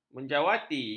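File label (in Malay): "menjawati", Malay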